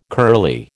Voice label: "curly", American